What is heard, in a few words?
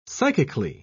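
"psychically", British English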